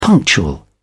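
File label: "punctual", British English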